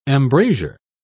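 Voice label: "embrasure", British English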